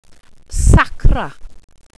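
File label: "sacra", welsh pronunciations; Wales/welsh names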